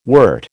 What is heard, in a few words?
"wert", American